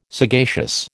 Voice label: "sagacious", American